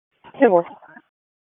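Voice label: Raleigh Police Northeast District Dispatch, p25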